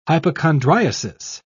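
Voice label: "hypochondriasis", British English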